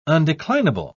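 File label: "undeclinable", British English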